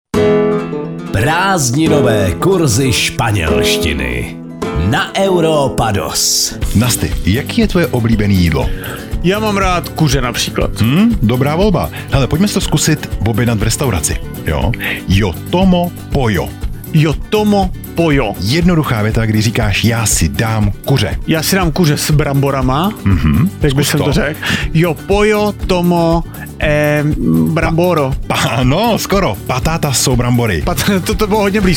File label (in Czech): spanelstina spanelstina